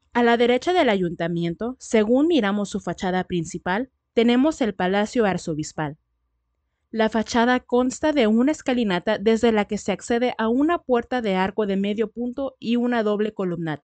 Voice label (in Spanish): Audioguia turistica, locución; Palacio Arzobispal